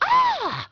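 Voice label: female wow; People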